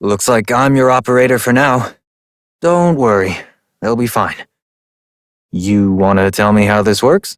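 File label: Adult, English; New War; Orbiter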